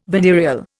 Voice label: "venereal", Indian